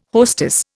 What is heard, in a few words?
"hostess", Indian